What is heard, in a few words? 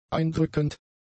"eindrückend", German; adjectives